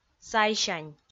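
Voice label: "сайсянь", Komi, female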